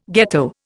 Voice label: "ghetto", Indian